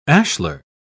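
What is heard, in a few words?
"ashler", English, British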